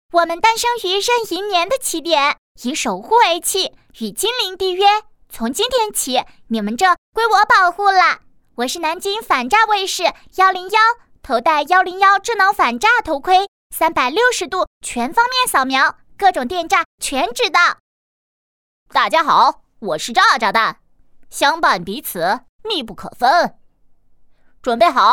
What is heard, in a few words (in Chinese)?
两个角色配音